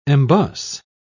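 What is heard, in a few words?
"embus", British English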